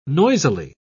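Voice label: "noisily", British English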